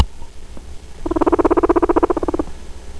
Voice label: angry